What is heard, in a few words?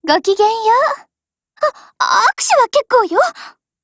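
GAIN, Japanese; STG44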